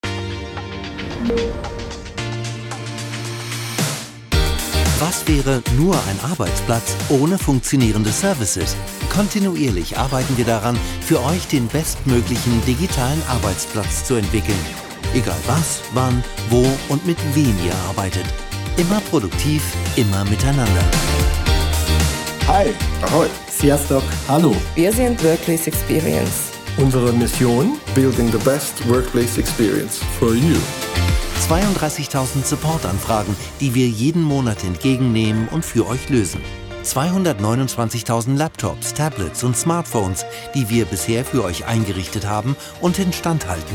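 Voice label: German; telekom workplace; Berlin; Muttersprache Deutsch, Englisch, Englisch/UK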